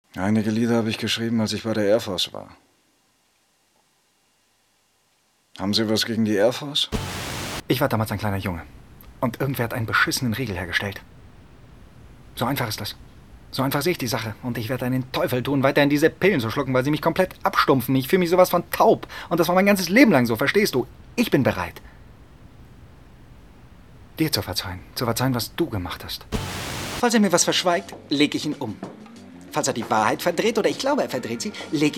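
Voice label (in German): synchron, deutsch männer; sprecher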